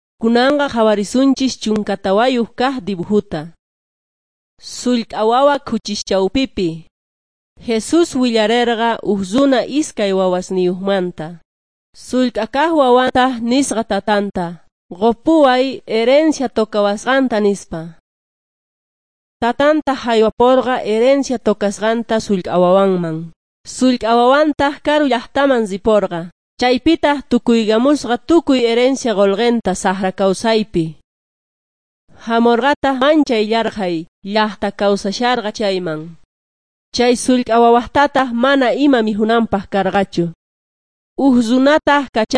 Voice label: jesus lord and saviour quechua s bolivian cochabamba